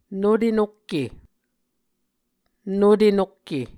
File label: "nute nokke", muscogee; Mvskoke Words with Repeated Consonants; A Dictionary of Creek/Muskogee; SIL International